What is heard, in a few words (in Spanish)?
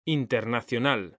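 "internacional", Spanish, male; mekanta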